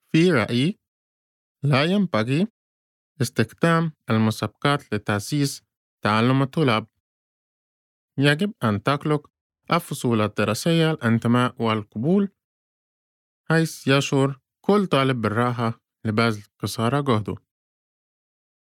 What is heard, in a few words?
Arabic response